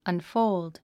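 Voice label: "unfold", English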